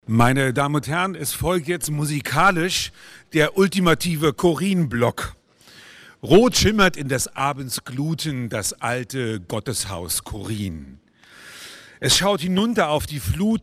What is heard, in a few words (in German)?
Ansage Lied; Chorin; Waldgebiet des Jahres 2023